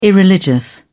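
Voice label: "irreligious", British English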